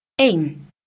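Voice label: "een", Dutch, female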